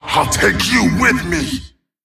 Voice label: Male Tol'vir Attack